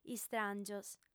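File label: "istràngios", female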